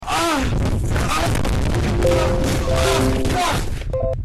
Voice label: rage; fortnite